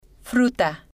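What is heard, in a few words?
"fruta", Spanish, female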